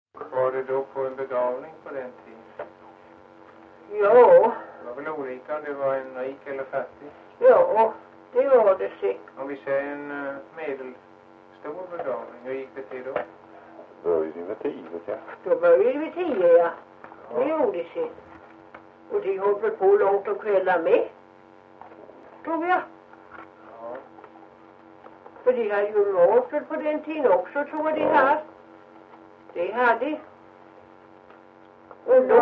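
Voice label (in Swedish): Ventlinge Öland, dialekter; Folkminnen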